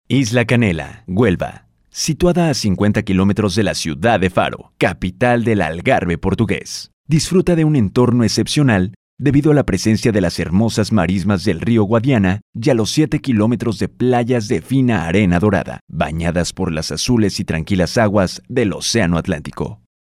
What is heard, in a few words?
locutor mexicano mexican voiceover